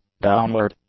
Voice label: "downward", English; adjectives